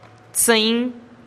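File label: "tsïinn", quince, Teotitlán del Valle Zapotec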